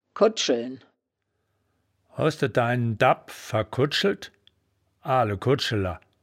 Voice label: "kodd scheln", wittlich

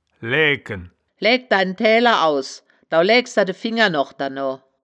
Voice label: "laeaegken", Wittlicher; das wittlicher woerterbuch; woerterbuch vertonung

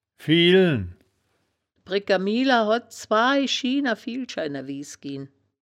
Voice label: "fiehlschi", wittlicher

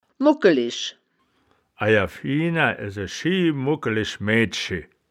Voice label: "mugkelisch", wittlich

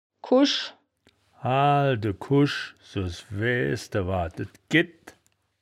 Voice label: "kusch", Wittlicher; das wittlicher woerterbuch; woerterbuch vertonung

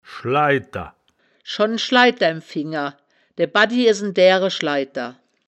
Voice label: "schleiter", wittlich

